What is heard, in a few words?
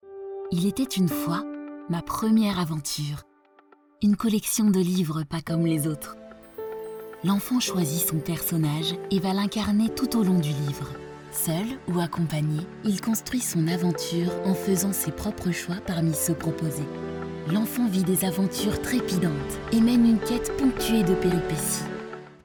french narration, voice actors